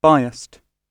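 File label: "biased", English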